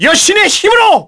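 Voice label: Vox Skill, Korean; Kasel; kingsraid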